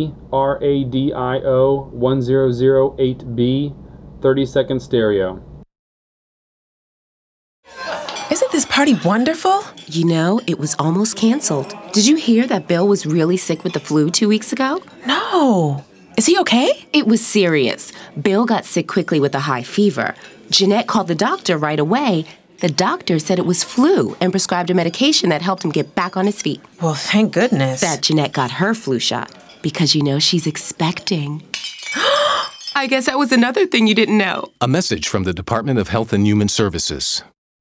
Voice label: radio; dinner party; flu

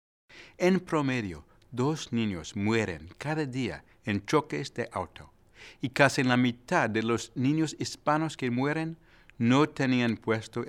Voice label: Car Safety Spanish; Seguridad Ninos Auto

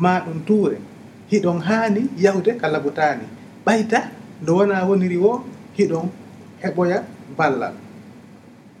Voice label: fulani, ebola radio spots; vhf

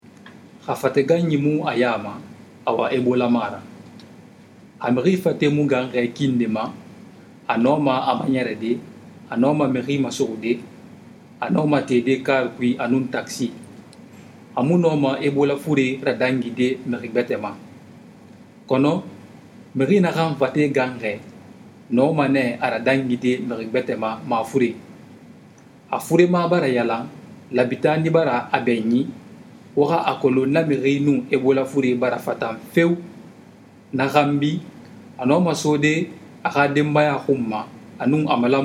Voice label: sousou; ebola; radio-spots; vhf

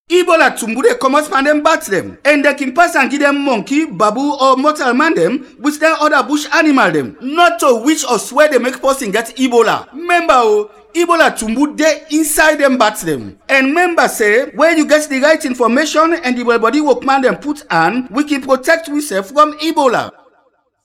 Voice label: Krio, radio spots; ebola

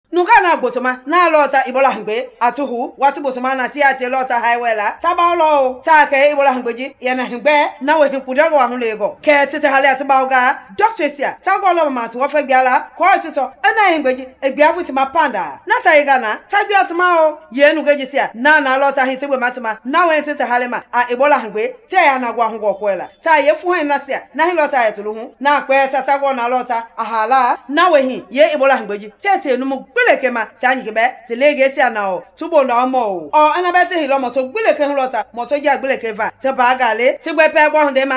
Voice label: Mende; ebola; radio spots

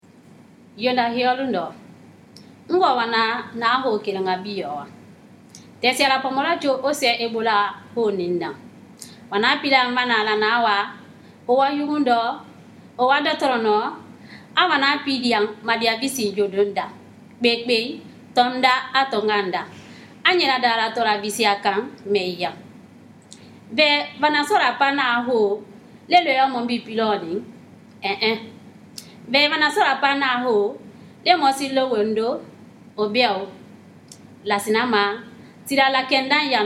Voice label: kissi; ebola; radio-spots; vhf

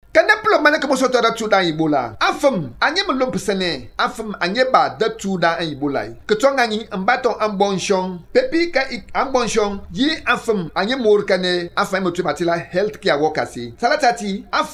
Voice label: Themne; ebola; radio-spots

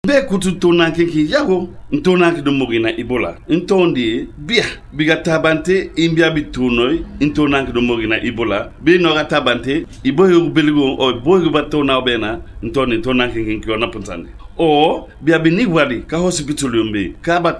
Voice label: Limba; ebola; radio-spots

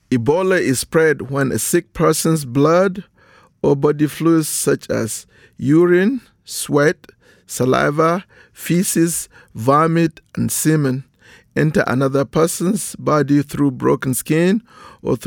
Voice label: English PSA Message; ebola; radio-spots; vhf

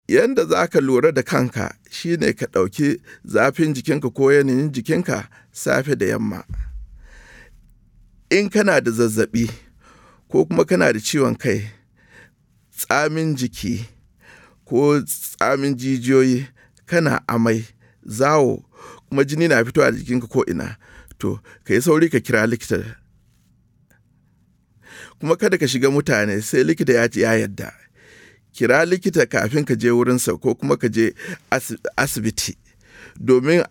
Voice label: Hausa Message, radio spots; PSA; ebola; vhf

